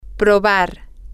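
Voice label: to order, Spanish, female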